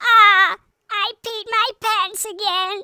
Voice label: uh oh, Grandpa speech

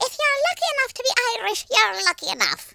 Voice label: "perfect", Irish; speech

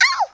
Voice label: oof, Southern Belle; speech

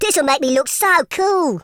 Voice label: "kamikaze", Teenage Angst speech

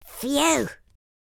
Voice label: relief, Teenage Angst; speech

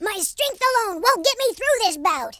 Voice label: "uh oh", American Wrestler

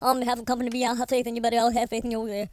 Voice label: pray, Drill Sergeant; speech

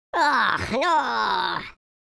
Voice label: sad, Scotsman; speech

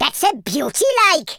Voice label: dragonpunch, Welsh; speech

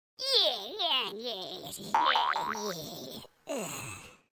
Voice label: taunt, Grandpa speech

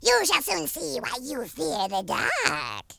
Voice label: "just you wait", Worms Horror speech

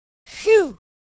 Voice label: relief, Drill Sergeant, speech

